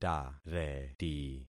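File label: "tareti", concatenated syllables; Affixing experiment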